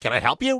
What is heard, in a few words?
Gnome Male Standard Greeting; NPC